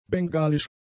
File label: "bengalisch", German; adjectives